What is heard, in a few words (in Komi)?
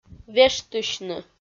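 "вештысьны", Komi, female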